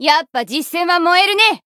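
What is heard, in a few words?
encounter, Japanese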